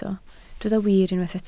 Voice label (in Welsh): "wir", Welsh